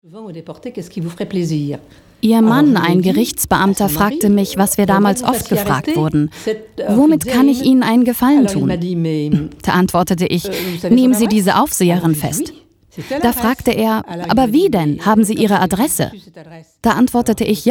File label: Voice Over Museum